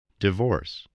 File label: "divorce", spelling